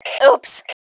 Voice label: Ooops, Female; T2 Female 01